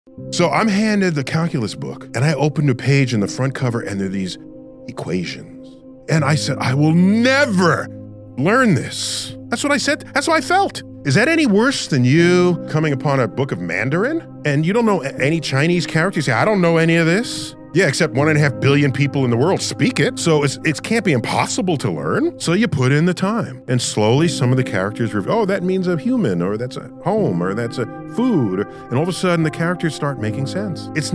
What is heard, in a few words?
English Audio; OpenAI Whisper Example